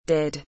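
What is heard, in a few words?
"did", English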